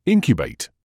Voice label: "incubate", English